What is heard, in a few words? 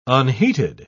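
"unheated", British English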